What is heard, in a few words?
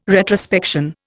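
"retrospection", Indian English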